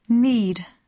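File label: "need", English; poitiers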